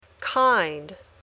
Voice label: "kind", English